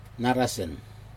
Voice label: "narasen", skin, Aneityum